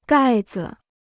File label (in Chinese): "盖子", Chinese